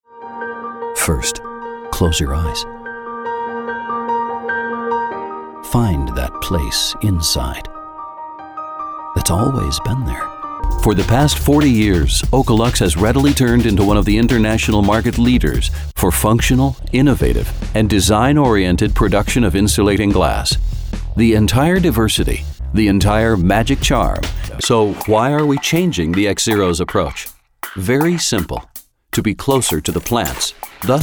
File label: American English Narration Corporate